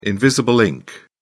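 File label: "invisible ink", British English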